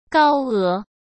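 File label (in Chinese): "高额", Mandarin Chinese; hsk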